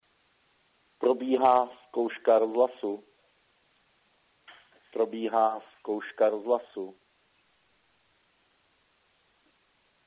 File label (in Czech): hlaseni, Obecní rozhlas; obce Lipová